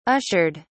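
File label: "ushered", British English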